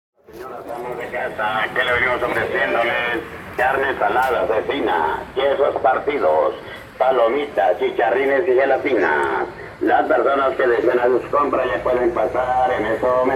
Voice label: vendedor, mexico chiapas; zinocantan

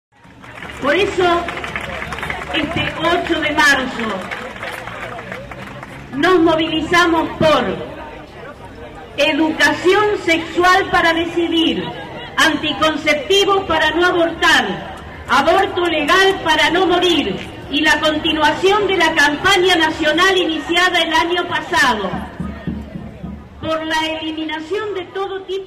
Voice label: actos dia de la mujer lectura documento

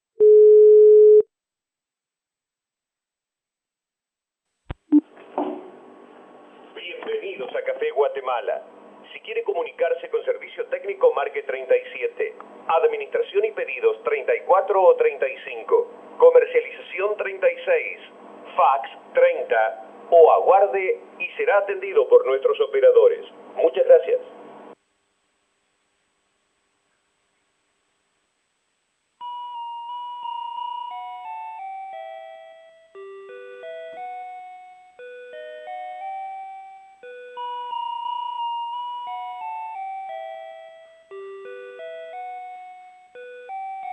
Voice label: mensajes telefonicos

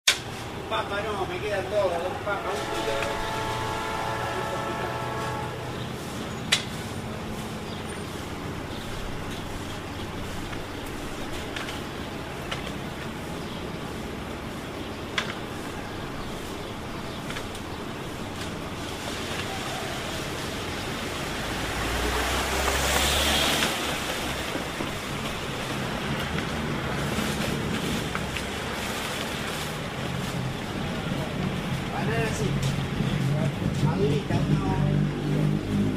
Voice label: vendedor verdulero balanza de platillos; formosa

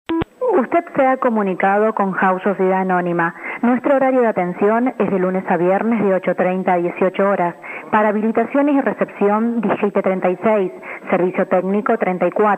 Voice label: mensajes telefonicos

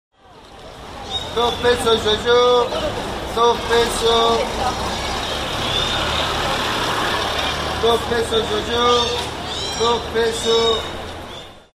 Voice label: vendedores yoyo peatonal; san martin y rioja

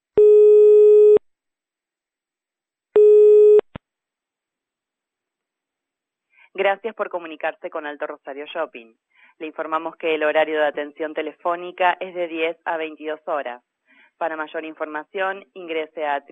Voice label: mensajes telefonicos

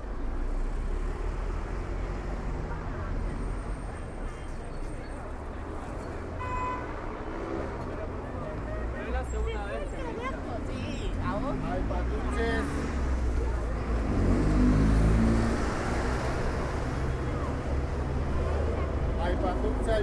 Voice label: vendedor pan dulce y pan casero; s luis y corrientes; remar

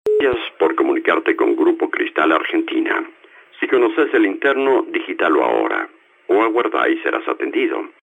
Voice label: mensajes telefonicos

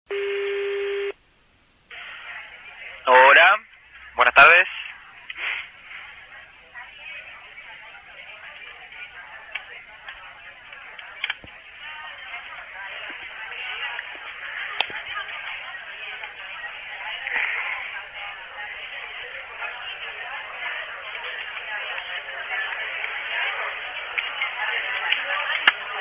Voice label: mensajes telefonicos

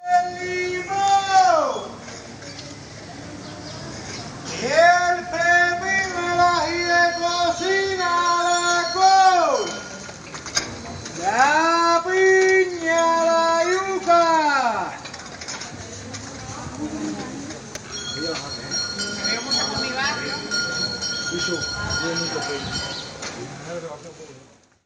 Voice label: vendedor frutas y verduras, cienfuegos cuba

